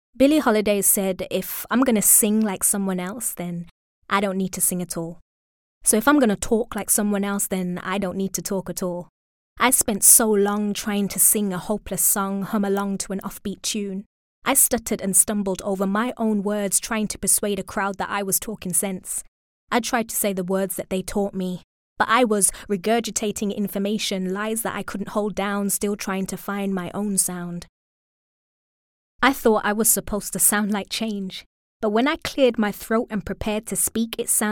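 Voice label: British English, Audiobook; GoLocalise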